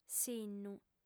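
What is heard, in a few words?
"sinnu", female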